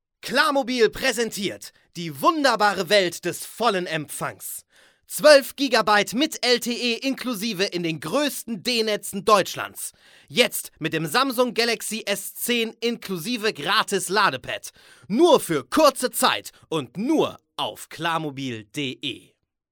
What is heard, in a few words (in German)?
Werbung; Klarmobil